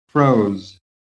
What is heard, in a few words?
"FROZE", american